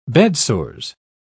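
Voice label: "bedsores", English, British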